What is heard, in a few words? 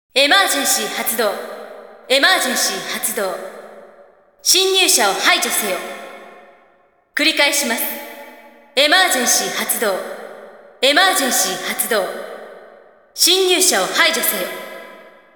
Emergency alert (Japanese); Sonic Riders